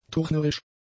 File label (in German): "turnerisch", German; adjectives